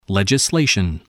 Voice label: "legislation", spelling